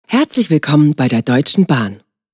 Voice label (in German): deutschebahn telefonansage deutsch